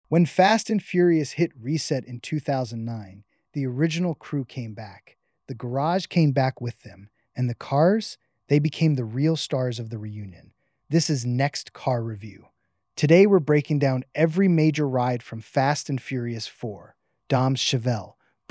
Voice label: Voiceover; Fast and Furious 4 Cars